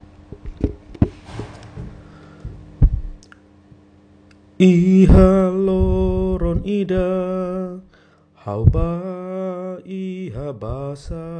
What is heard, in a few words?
tetun